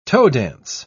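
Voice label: "toe-dance", British English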